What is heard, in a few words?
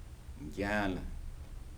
"claw", Kibembe